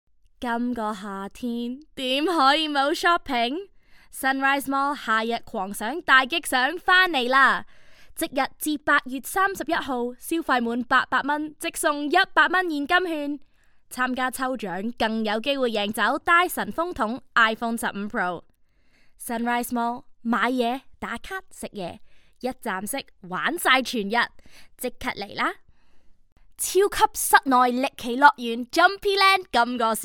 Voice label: Cantonese; Chinese-Cantonese